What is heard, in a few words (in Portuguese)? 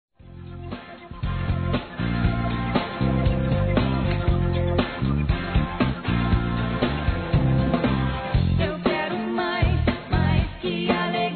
Aniversário Adolescente Feminino; Aniversários; ANIV. DE ADOLESCENTE; Telemensagens